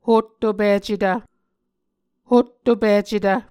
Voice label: "hottopicetv", Muscogee

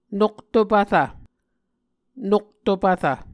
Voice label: "noktopvrv", Muscogee

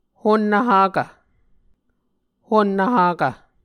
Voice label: "honnv hakv", Muscogee

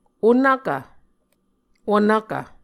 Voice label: "onvkv", Muscogee

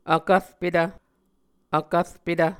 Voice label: "vkvrpetv", Muscogee

